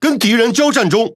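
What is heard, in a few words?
Speech Pilots Fighter Engage, Taiwan; World Of Warships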